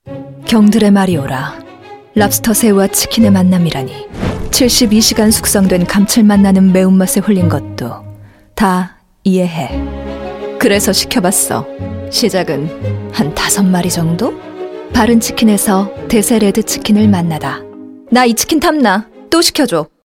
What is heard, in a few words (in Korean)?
30대중저음 패러디편; 바른치킨; 하렘의남자들패러디편